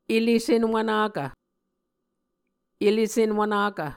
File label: "ele senwvnakv", muscogee